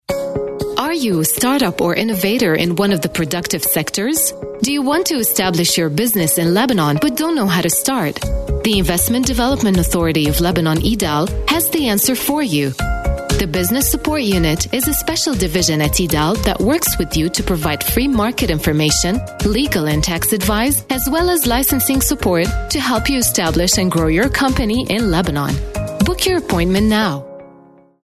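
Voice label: Arabic, female, English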